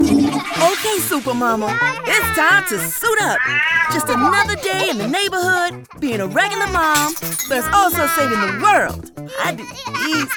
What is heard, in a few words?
women animation